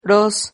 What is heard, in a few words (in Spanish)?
"ros", Silabas